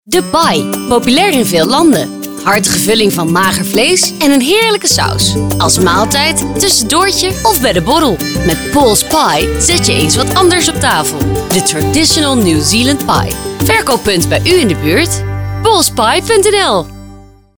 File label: Commercial Radio; Pauls Pie 2015; Groot Nieuws Radio